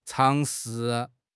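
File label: "尝试", Chinese; HSK6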